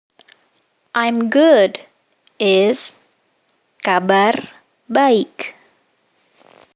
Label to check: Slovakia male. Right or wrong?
Wrong